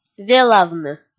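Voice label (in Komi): "велавны", Komi, female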